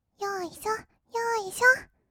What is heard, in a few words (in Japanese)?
"よいしょ よいしょ", kawaii voice; 1200 kawaii voice!!; 色々なワンショット